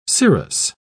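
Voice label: "cirrous", British English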